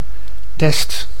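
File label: "test", Dutch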